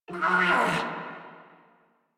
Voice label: Struggle Crewmen; Dialog; Barks; Battle; Warframe; Corpus Crewmen; Crewman D

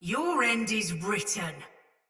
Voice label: Attack Takeover Soldier Mace Agent Female; Battle; Sinecure Melee

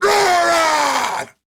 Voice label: Attack Grineer Ghoul Sargeant, en

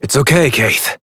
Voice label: Horse Calm Adult; Duviri

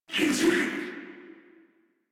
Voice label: Player Spotted, Battle Barks

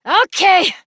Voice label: Horse Stop Adult; Duviri

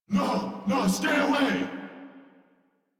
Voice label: Found, Battle Barks; Warframe; Corpus VIP B

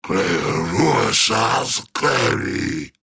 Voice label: Idle Grineer Ghoul Sarge, en; Grineer Ghoul Drill Sargeant; Battle Barks

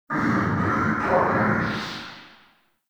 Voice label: Barks Unholy Slam Verb; Warframe; Tau; Roathe

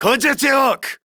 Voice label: Reload Male, Battle Barks; en; Modular Corpus

